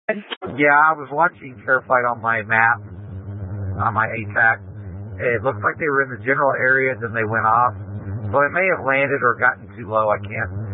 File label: Incident Tac 1, scanner